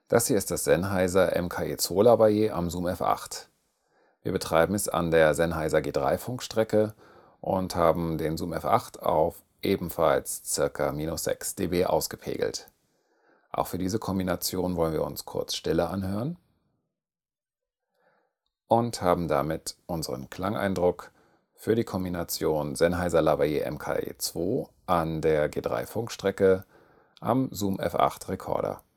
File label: Senheiser MKE2 Zoom F8 denoise; Zoom F8 Audioaufnahmen mit MKE2 Lavalier (G3), Rode NTG2 und Reporter (dynamisch)